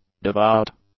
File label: "devout", english; adjectives